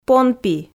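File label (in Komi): "понпи", Komi, female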